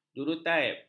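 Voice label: "jurutaip", Malay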